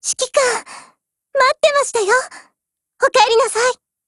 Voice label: HELLO JP; GF Alarm; M3